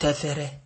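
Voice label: "terfere", Nigerian Pidgin, male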